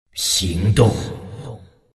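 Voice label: attack, chinese; dota2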